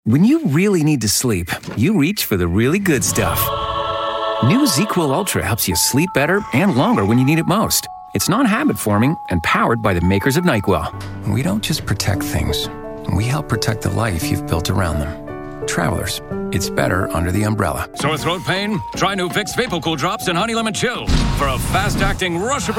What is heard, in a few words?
Commercial